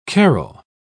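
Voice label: "carrell", English, British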